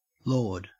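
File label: "lord", Australian English; pronunciations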